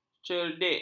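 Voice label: "cerdik", Malay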